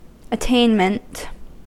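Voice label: "attainment" English, US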